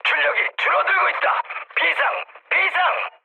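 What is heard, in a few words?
Korean damaged engine mood med; speech; War Thunder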